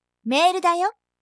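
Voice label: "maildayo", Japanese; announce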